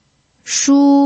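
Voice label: "shu", Mandarin Chinese pinyin, first tone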